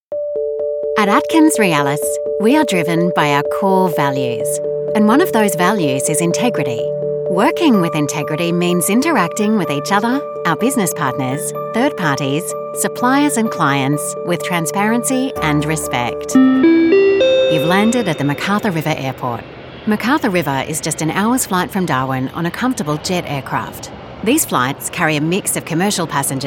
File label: Corporate